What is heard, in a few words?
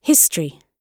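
"history", British English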